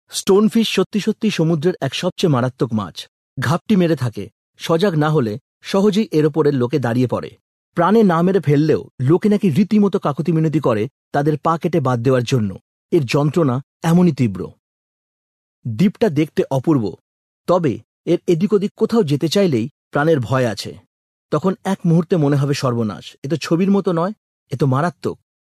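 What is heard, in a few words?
Bengali, male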